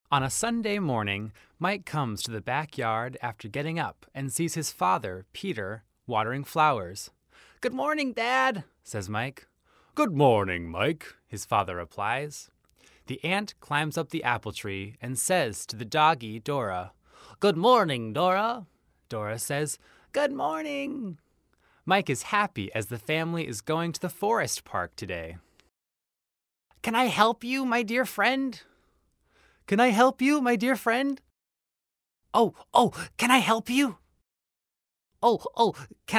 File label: boy cartoon